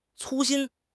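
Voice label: "cu1 xin1", Chinese; HSK4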